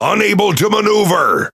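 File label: Pirate Speech Wheel Damaged, English pirate; defective modules; World Of Warships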